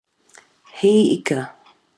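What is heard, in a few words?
"hi ika", Māori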